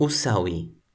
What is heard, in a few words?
"usawi", Toki Pona